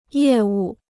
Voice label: "业务", Chinese